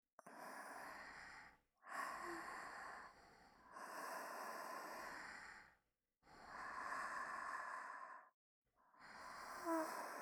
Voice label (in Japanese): おやすみ, ASMR; 川の字でおやすみ